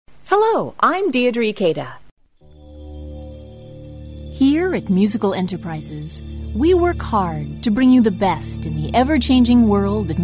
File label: USA, F